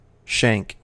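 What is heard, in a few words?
"shank", US English; pronunciations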